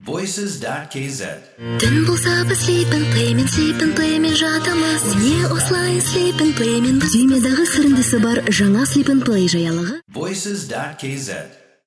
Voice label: kz vocal

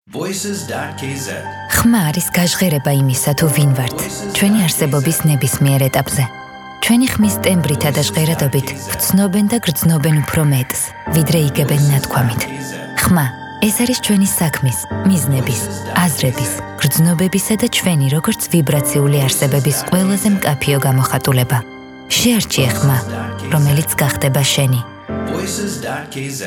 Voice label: woman

